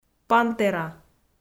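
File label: "пантера", Komi, female; audio dict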